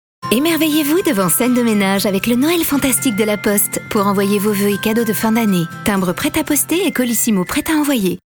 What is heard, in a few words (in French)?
Billboard; LA POSTE; Noel fantastique